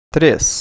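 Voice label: "tres", Portuguese, Brazil, male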